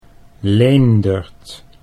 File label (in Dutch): "Leendert", Dutch